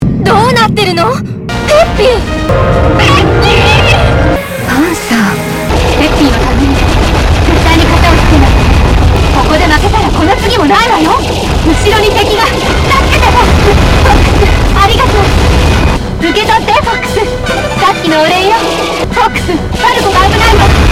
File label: Voice Japanese; Assault; Aparoid Homeworld Inside